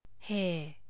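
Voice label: "hair", Australian English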